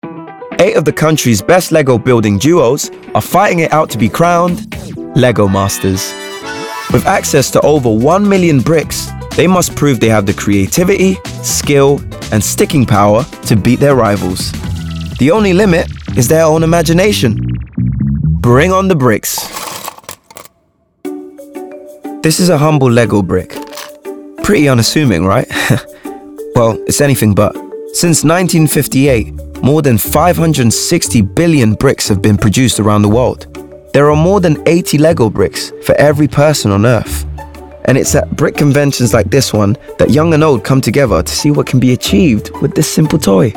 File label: Narration; Lego